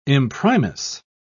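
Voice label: "imprimis", British English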